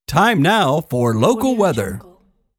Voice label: announcer time now for local weather